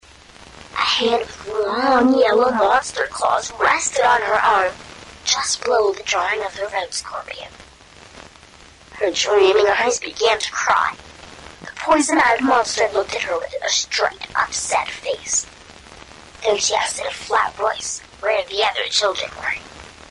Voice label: flat voice